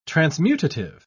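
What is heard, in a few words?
"transmutative", British English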